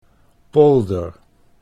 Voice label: "polder", Dutch